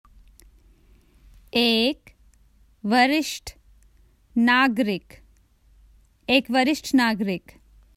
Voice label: Common Hindi Phrases for travel One senior

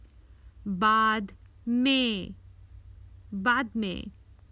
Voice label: Common Hindi Phrases for travel Later

